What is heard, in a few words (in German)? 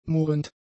"murrend", German; adjectives